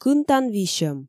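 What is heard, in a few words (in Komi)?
"кынтан висьӧм", Komi, female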